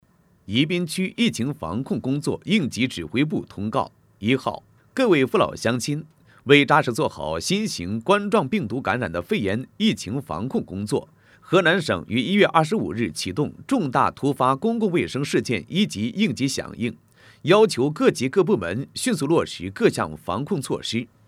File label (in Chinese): 男国 专题 政府 疫情防控 成熟; 伊滨区疫情防控